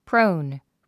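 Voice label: "prone", English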